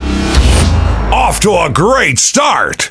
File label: Pirate Speech FirstKill; World Of Warships